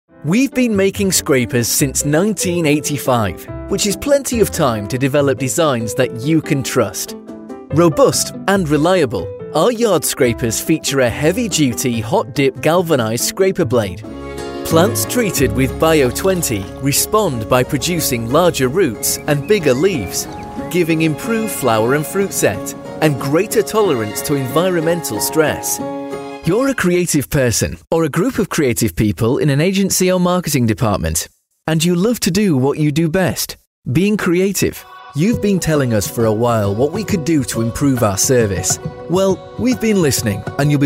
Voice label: explainer